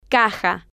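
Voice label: "caja", Spanish, female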